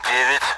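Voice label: Speech, Russian, AWACS; Digits; DCS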